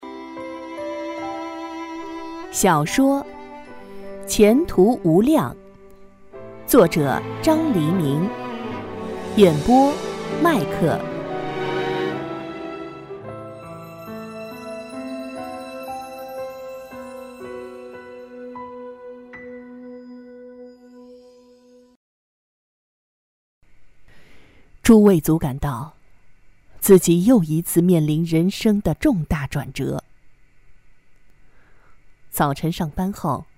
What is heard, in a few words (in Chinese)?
女 小说; 前途无量BY麦克